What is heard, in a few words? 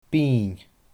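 "binn", Scottish Gaelic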